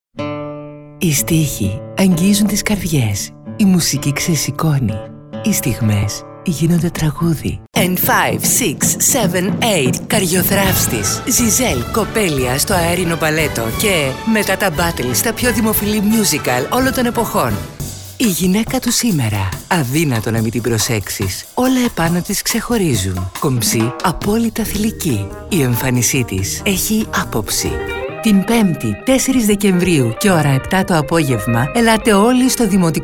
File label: FEMALE VOICE HYPER